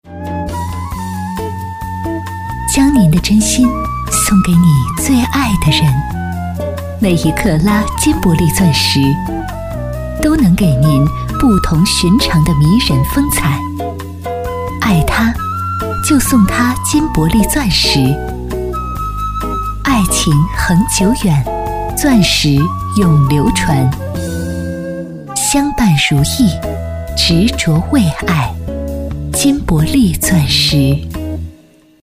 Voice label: 广告 珠宝首饰 温情, 女国; 金伯利钻石

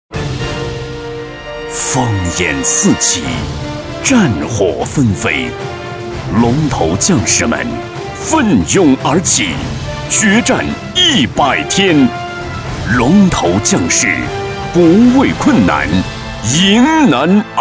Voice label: 专题 政府 激情, 男国; 百日大战

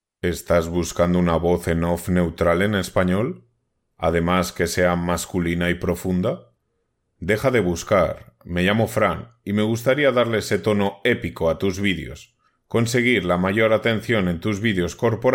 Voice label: 西班牙语男, 外语

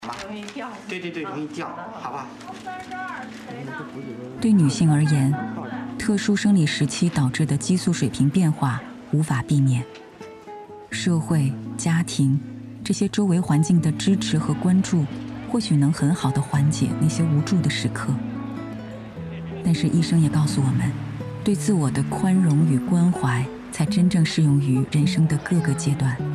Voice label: 女 纪录片 自然科教 纪录片; 央视纪录片我们如何对抗抑郁

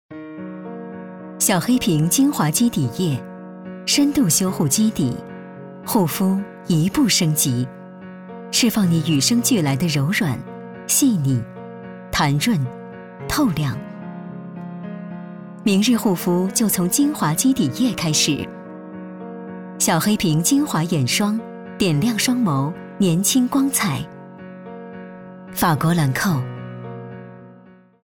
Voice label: 广告 品牌形象 化妆品 优雅, 女; 国际大牌化妆品法国兰蔻

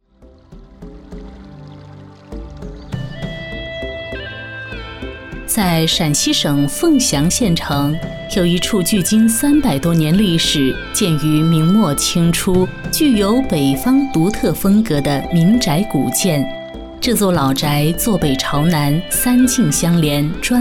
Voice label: 女 纪录片 人文历史 民俗馆解说

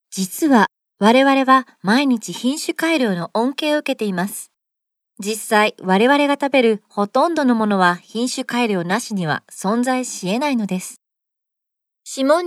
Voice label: 日语女; 外语; 小语种

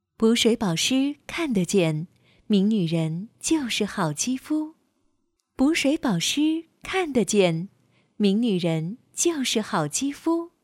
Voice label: 广告 化妆品 温柔; 名女人央视一套广告

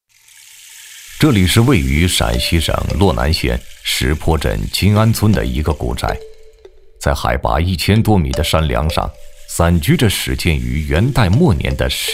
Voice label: 男国 纪录片 人文历史 磁性 神秘; 古村寨考古纪录片

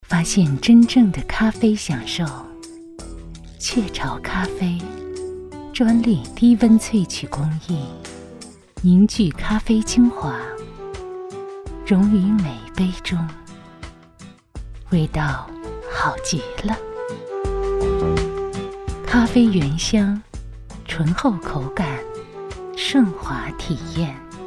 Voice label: 女 广告 品牌形象 咖啡 知性

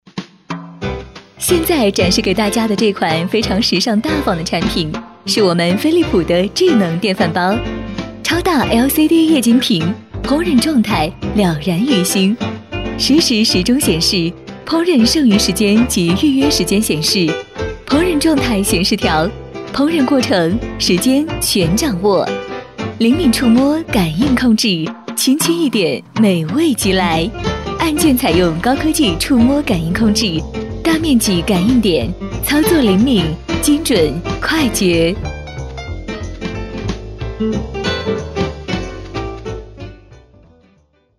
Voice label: 专题 产品 智能电饭煲 亲切, 女国; 飞利浦

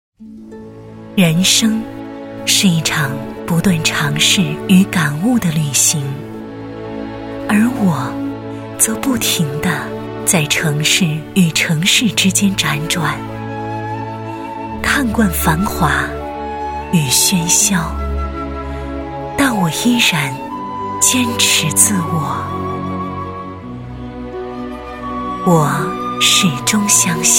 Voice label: 大气唯美地产片; 宣传片 地产

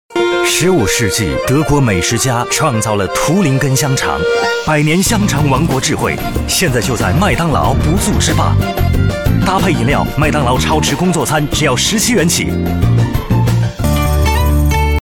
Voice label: 广告 食品饮料 超值工作餐; 麦当劳17元超值工作餐

